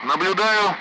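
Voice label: Speech Sound Russian Common Allied Flight Messages; tally; DCS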